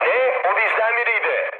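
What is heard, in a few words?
Turkish player killed ally, mood med, speech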